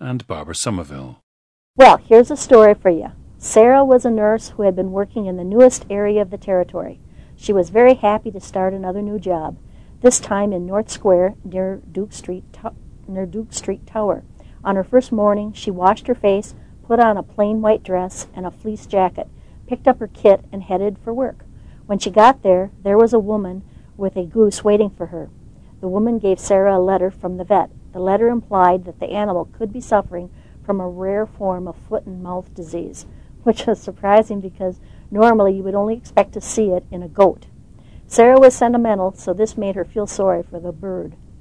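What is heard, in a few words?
wisconsin, dialects of English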